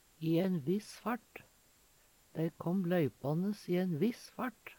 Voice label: i ein viss fart, Numedalsmål; veldig fort; Dei kåm løypanes i ein viss fart.

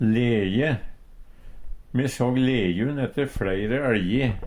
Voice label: leje, Numedalsmål; Substantiv inkjekjønn

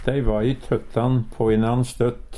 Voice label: "tøttan", Numedalsmål; krangle; småslossing

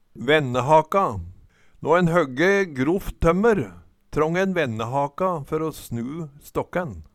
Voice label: vennehaka, Numedalsmål

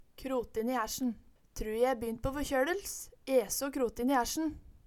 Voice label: krotin i haersjen, Numedalsmål

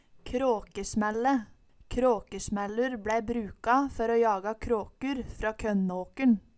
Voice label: krakesmaelle, Numedalsmål

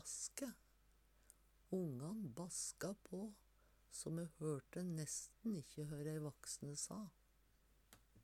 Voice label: baske, Numedalsmål; Ongan baska på so me hørte nesten ikkje hø dei vaksne sa.

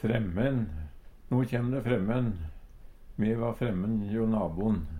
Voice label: "fremmen", Numedalsmål; No kjem de fremmen! Me va frammen jå nabon.

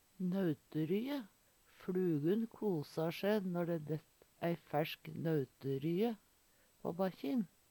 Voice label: "nøuterye", Numedalsmål

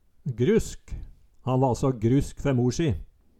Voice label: grusk, Numedalsmål

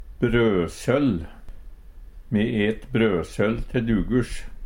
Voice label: "brøsøll", Numedalsmål

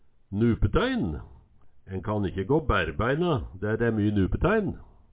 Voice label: "nupetæin", Numedalsmål

